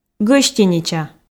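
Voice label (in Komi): "гӧстинича", Komi, female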